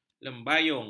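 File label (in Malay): "lembayung", Malay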